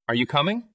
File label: Voice Acting es M